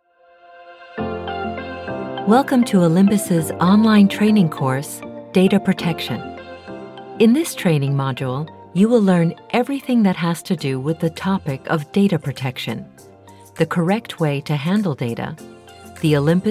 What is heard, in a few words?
E-Learning